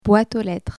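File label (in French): "boite aux lettres" French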